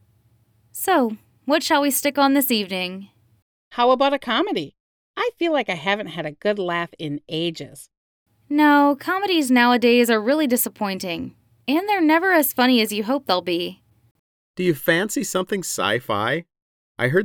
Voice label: conversation; Unit 8